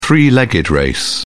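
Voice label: "three legged race", British English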